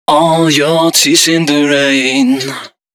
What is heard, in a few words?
male d#130, Dance Ultra Voice hooklines